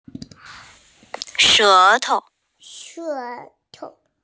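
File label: "shé tou", Mandarin Chinese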